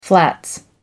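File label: "flats", British English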